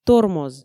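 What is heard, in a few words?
"тормоз", Komi, female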